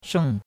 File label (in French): "sheng4", chinois; Cours de Chinois